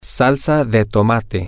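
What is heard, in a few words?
Spanish, male; ketchup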